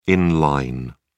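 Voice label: "in line", British English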